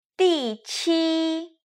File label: "diqi", Chinese